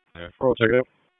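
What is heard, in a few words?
Police Northeast District Dispatch, Raleigh; wake p25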